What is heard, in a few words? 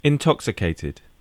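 "intoxicated", British English; pronunciations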